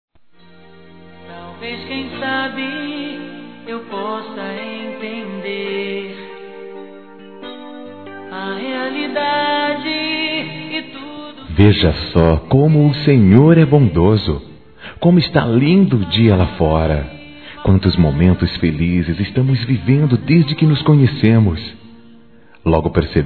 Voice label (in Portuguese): ROMANTICA SUAVE M, Telemensagens